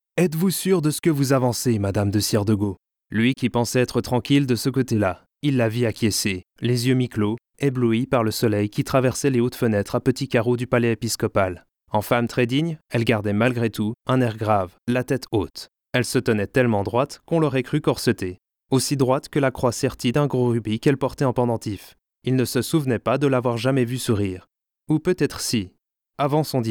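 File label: French M Audiobook, male; voice over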